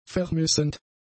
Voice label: "vermissend", German; adjectives